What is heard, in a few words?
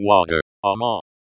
"ạ²ma", Cherokee; Water; ᎠᎹ